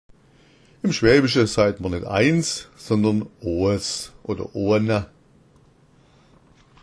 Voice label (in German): oas, schwaebisch; Die Zahl 1 wird als oas ausgesprochen.